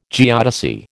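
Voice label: "geodesy", American English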